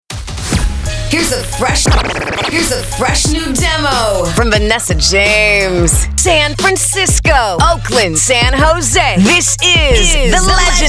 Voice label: radio urban